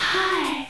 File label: sexy "hi"; AUSRUF